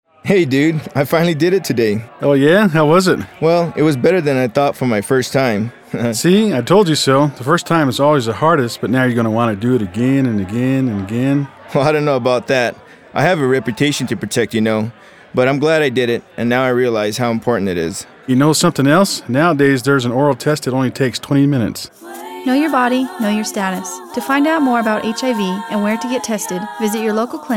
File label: Radio PSA Adult I Did It Today